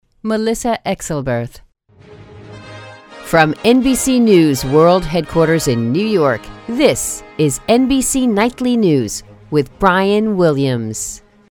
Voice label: News Open; NBC